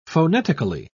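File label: "phonetically", British English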